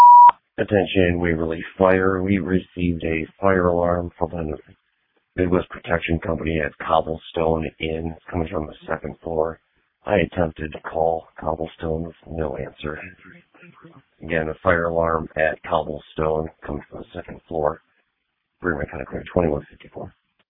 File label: Waverly Fire, dispatch